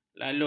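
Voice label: "lalut", Malay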